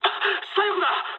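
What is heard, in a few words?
Japanese left wing mood med; War Thunder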